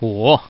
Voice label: Man